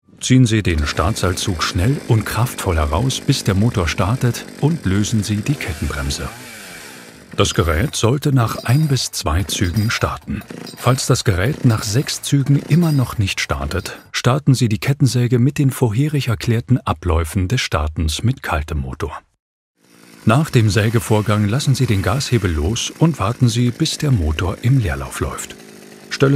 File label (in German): Hoerprobe E-Learning; scheppach